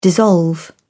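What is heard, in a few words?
"dissolve", British English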